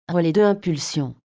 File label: relay 2 pulse, fr_FR; SvxLink; RemoteRelay